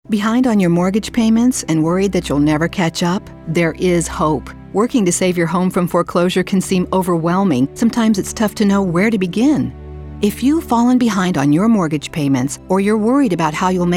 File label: Eng Fem